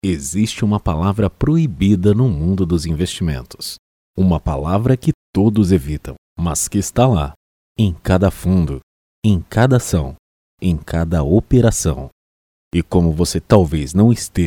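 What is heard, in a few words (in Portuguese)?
Institucional, Locutores Comerciais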